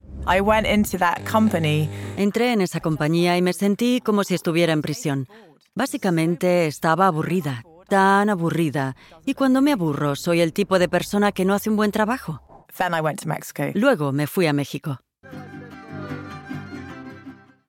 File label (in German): podcast spanisch